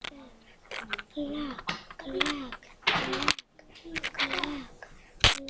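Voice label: "clock", spoken word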